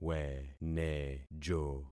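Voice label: "wenejo", concatenated syllables; Affixing experiment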